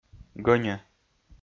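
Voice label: "гӧньӧ", Komi, male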